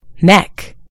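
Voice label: "neck", British English; gcompris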